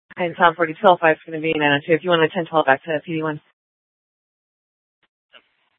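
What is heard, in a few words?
police dispatch, Scottsdale PD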